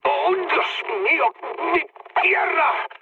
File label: damaged pilot, mood high, speech; War Thunder